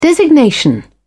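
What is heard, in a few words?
"designation", British English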